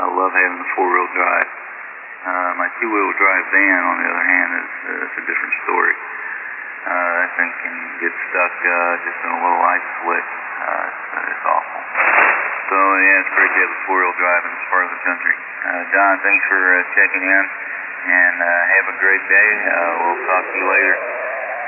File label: Yamaha CM500